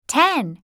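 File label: "ten", English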